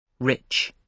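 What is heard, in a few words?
"rich", British English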